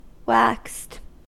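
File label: "waxed", American English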